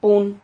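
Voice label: "pun", Spanish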